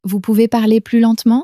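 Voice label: "vous pouvez parler plus lentement", French, female; flashcards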